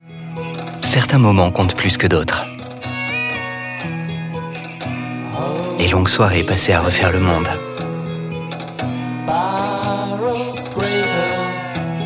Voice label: CORPORATE WEB EMOTION; Tornado